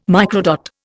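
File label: "microdot", English, Indian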